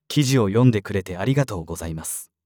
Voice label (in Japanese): 男性